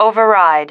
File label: "override", female